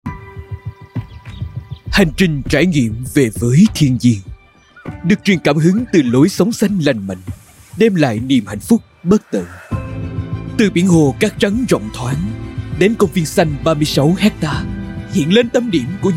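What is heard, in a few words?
Vietnamese South COMMERCIAL EMOTION Male; DOANH NGHIỆP; LUMIERE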